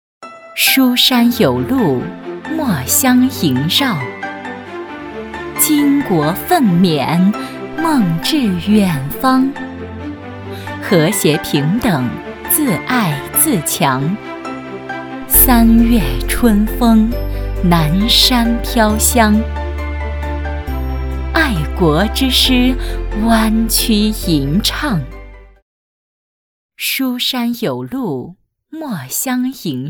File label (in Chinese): 女 宣传 水墨古韵